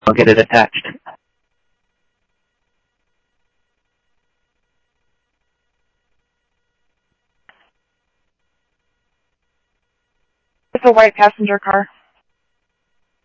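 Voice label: E TAC Sheriff East County, scanner; SoutheastCenMesa; MCSO E TAC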